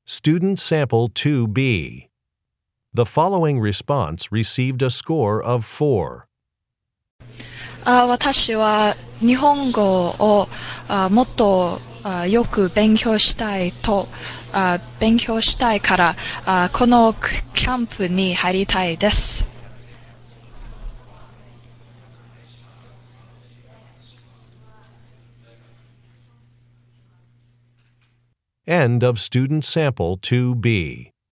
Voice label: japanese conversation

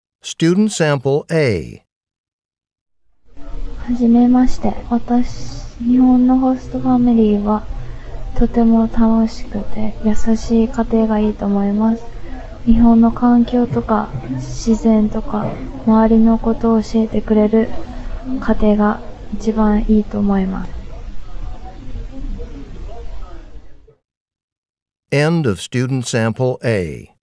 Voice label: japanese conversation

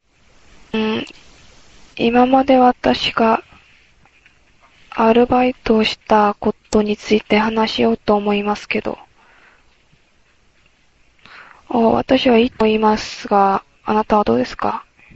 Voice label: Japanese Conversation

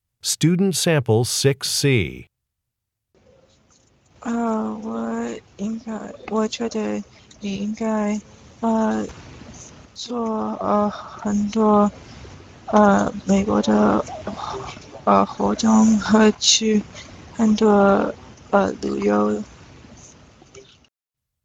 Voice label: chinese conversation

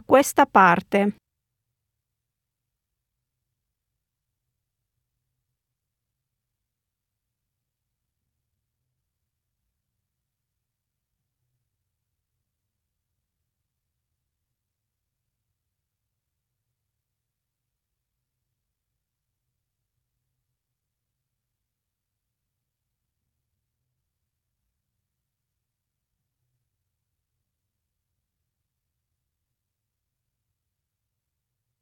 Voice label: italian directions

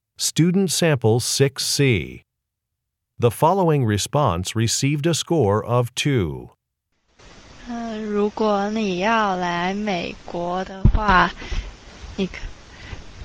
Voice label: chinese conversation

